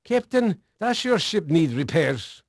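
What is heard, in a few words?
Dutch male, voice; Pirates Of The Caribbean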